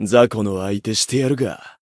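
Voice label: encounter, Japanese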